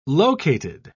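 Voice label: "located", English, British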